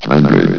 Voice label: "hundred", vox

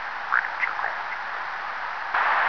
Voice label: grunt idle

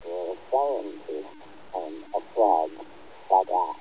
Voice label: mumble

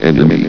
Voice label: "enemy", vox

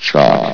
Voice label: "shock", vox

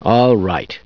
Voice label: "alright", scientist

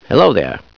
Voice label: "hello there", scientist

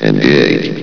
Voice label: "engaged", vox

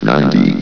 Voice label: "ninety", vox

